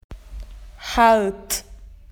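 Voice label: "hard", Australian English; PictureDictionary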